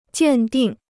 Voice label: "鉴定", Chinese